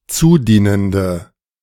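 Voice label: "zudienende", German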